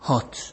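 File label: "hot", Nigerian Pidgin, male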